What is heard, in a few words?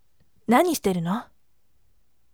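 voice, firm; nanishiteruno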